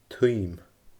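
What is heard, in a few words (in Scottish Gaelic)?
"tuim", Scottish Gaelic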